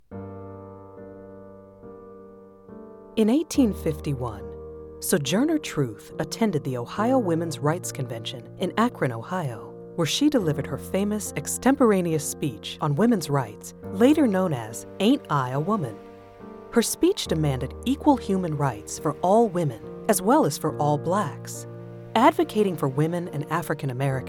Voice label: Narration